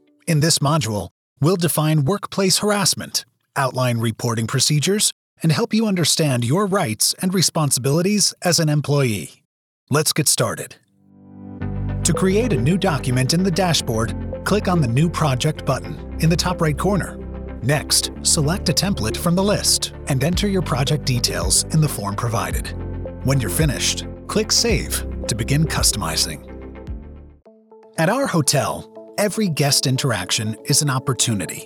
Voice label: E-Learning